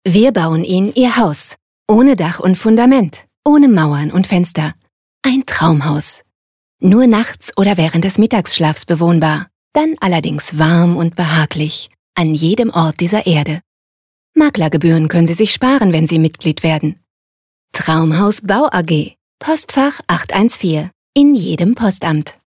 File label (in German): werbung hausbau freundlich